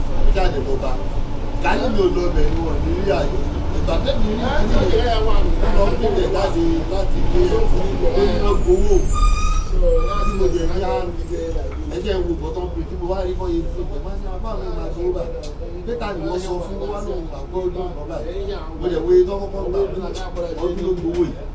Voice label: the loudest longest and strangest conversation i ve ever over heard